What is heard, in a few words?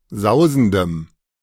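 "sausendem", German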